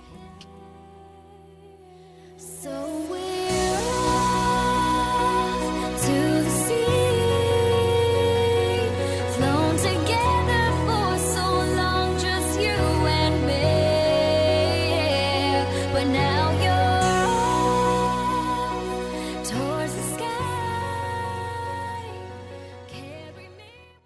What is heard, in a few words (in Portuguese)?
Aniversário Atrasado (Amiga Distante) Feminino; Aniversários; Aniversários diversos